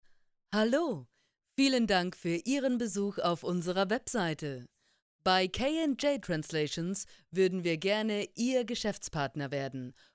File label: german female